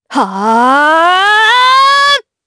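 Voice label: Vox Casting, Japanese; kingsraid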